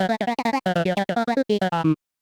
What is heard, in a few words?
medium clear jolly; voxel audio preview